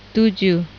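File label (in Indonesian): "tujuh", Indonesian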